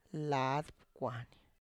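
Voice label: "laad pkwany", Tlacochahuaya Zapotec; space Between Fingers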